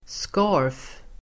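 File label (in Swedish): "scarf", Swedish; Uttal: [ska:f]; scarf substantiv, scarf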